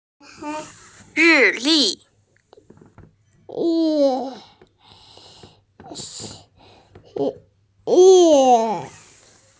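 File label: "ri4li4", Mandarin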